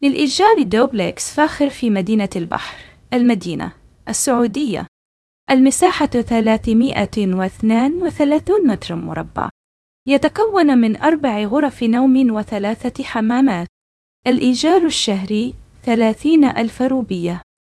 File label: narration audio